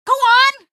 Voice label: go nag, female; Garry's Mod; hl2; canals

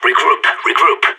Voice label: radio bot regroup, player vo sas

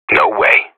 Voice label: radio bot reponse negative, professional; player vo

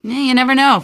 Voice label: answer, female; Garry's Mod; hl2

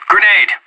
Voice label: grenade, player vo anarchist; Counter-Strike Global Offensive

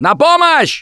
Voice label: help, russian; Gambler

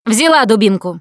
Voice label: melee weapons, russian, Survivor Voice Producer

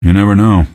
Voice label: answer, male; Garry's Mod; hl2

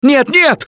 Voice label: grabbed by smoker, russian, Survivor Voice

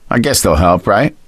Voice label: elevator, survivor voice gambler; Left 4 Dead 2

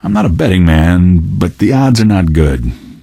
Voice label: question, male; Garry's Mod; hl2

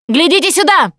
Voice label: look here, russian; Producer

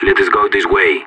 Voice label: radio follow me, separatist; player vo

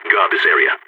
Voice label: radio bot hold, vo balkan; Counter-Strike Global Offensive

